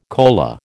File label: "kola", American